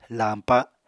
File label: "lañpa", Apatani